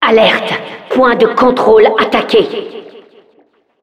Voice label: announcer control point warning, fr